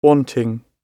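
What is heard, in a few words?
"wanting", British English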